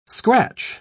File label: "scratch", pronounce